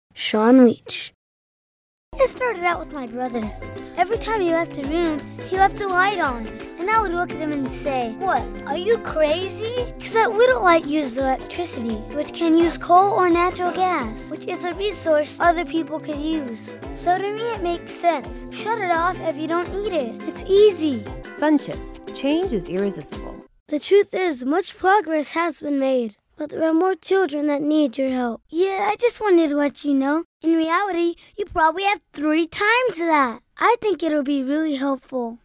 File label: Voice Talent Commercial